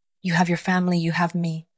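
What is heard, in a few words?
VoiceActing Spanish, female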